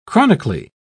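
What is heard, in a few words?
"chronically", British English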